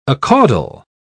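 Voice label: "acaudal", English, British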